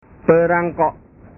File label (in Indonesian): "perangko", bahasa